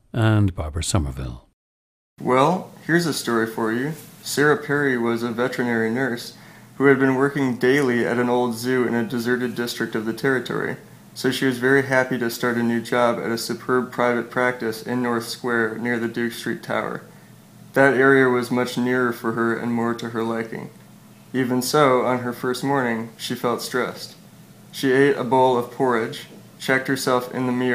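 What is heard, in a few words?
minnesota, International Dialects of English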